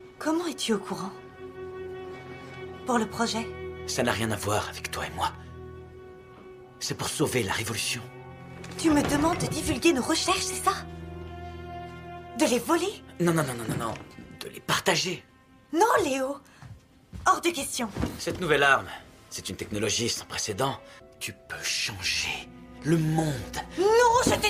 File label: DUBBING; voice-talent; Red Joan